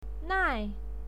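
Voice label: "nai" fourth tone, pinyin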